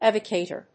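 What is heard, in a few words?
"evocator", English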